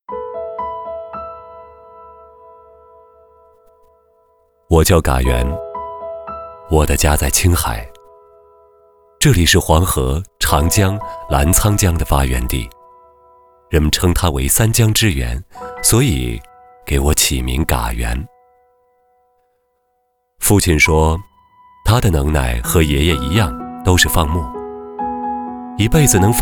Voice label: 男 走心独白; 青海农信

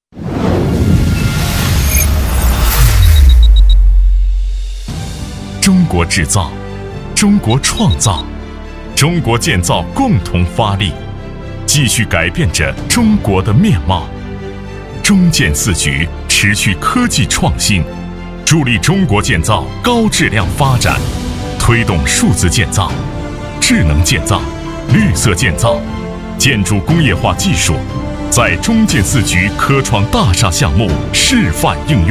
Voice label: 青春激昂 国企风格, 男

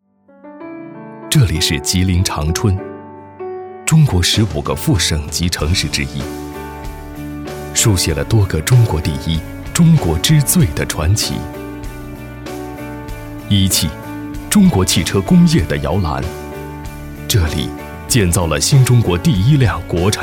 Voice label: 男 宣传片 时尚宣传

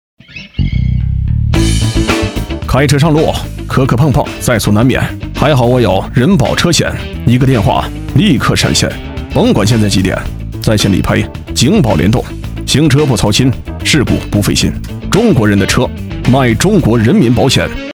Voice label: 男 广告 中国人民保险

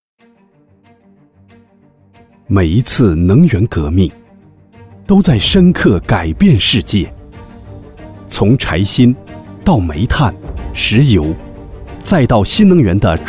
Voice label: C男, 宣传片; 海辰储能

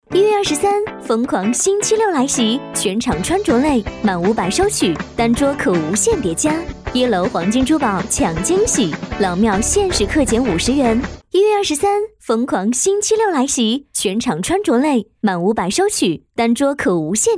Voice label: 女, 电台广告-欢快活泼; 八佰伴

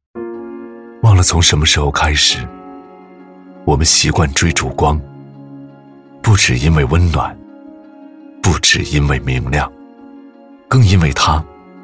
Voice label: 男 走心旁白宣传片

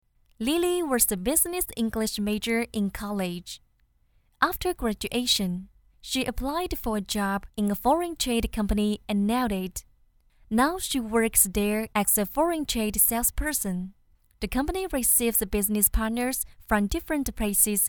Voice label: 英语 自然描述, 女

